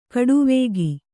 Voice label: "ಖಡಾವಿಗೆ", Kannada

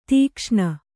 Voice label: "ದಕ್ಷಿಣ", Kannada

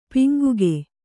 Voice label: "ಪಂಗಿಗ", Kannada

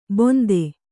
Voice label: "ಬೊಂತೆ", Kannada

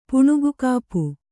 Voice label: "ಪುಣುಗುಕಾಪು", Kannada

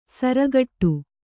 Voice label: "ಸಾರಗೆಡು", Kannada

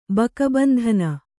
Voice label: "ಬಕಬಂಧನ", Kannada

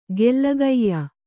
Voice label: "ಗೆಲುಗಯ್ಯ", Kannada

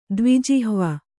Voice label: "ದ್ವಿಜಿಹ್ವ", kannada

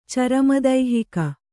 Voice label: "ಚರಮದೈಹಿಕ", kannada

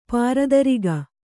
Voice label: "ಪಾರದರಿಗೆ", Kannada

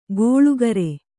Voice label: "ಗೋಳುಕರೆ", kannada

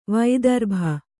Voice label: "ವೈದರ್ಭಿ", Kannada

